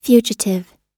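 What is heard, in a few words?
"fugitive", US English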